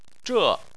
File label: "zhe4", Chinese